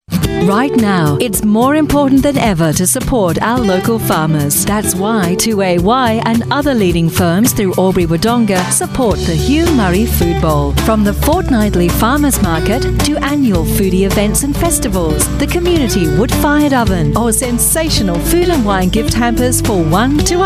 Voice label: AU English Advertising F

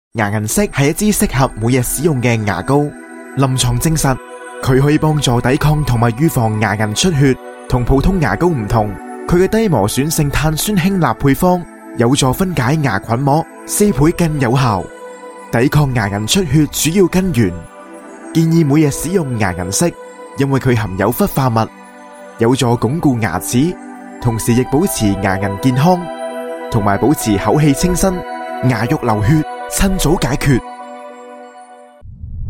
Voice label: Cantonese; Parodontax

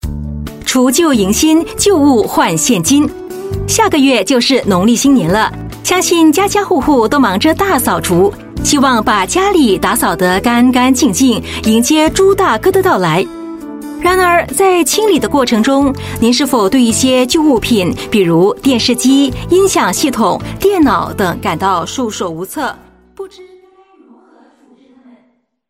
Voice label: Mandarin Corporate, Mandarin China Female

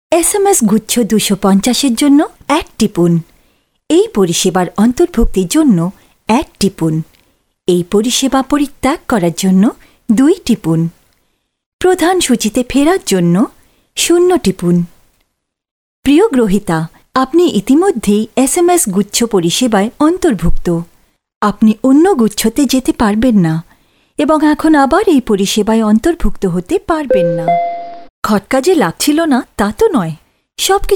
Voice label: Bengali F, India Female

